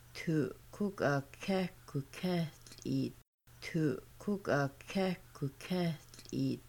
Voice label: You poured water into a cup, Kaska; Making Bannock